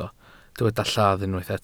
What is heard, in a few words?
"lladd", welsh